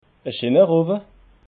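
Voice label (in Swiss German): "owa", Alsatian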